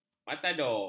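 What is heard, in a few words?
"matador", Malay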